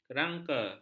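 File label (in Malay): "kerangka", Malay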